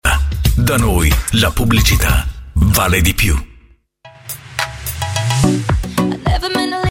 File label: promo